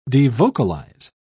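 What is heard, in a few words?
"devocalize", British English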